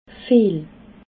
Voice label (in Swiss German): "viel", alsace